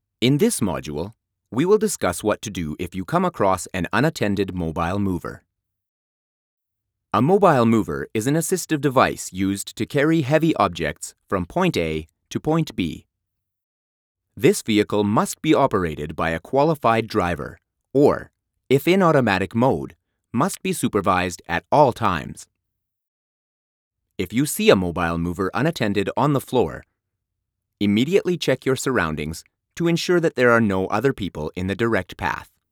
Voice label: E-learning